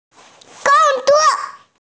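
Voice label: "work", hearing impaired; gong1zuo4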